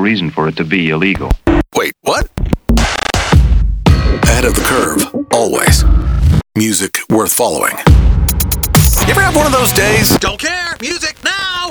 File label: Alternative AAA Imaging